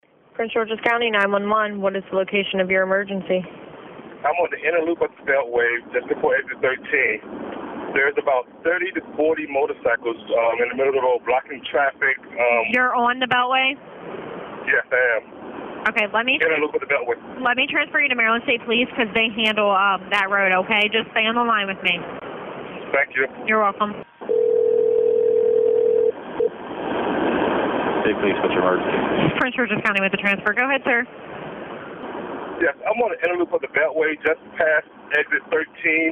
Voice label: 911 call